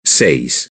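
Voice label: "seis", Spanish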